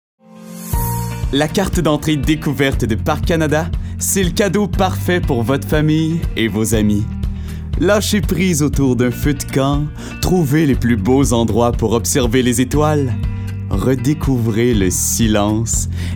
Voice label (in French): pub annonceur fr qc naturel amical medium jeune adulte; parc canada